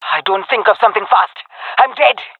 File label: scared, jungle fem epic; vo; agents; Source Model Packs; Counter Strike Model Pack; CS2